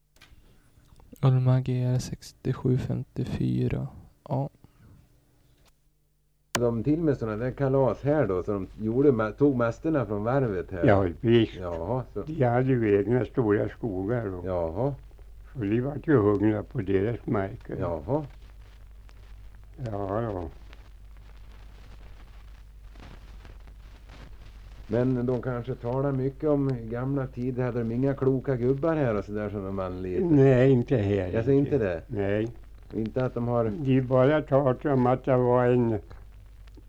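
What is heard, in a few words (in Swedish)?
Säterbo Västmanland, dialekter; Svenska sagor; Folkminnen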